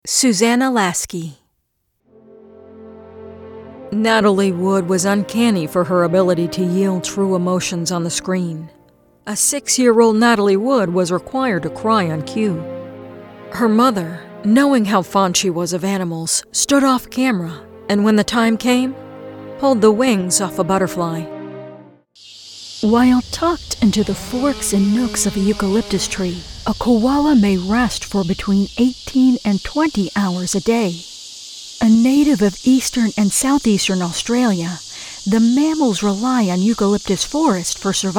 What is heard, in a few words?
TV Film Doc Narr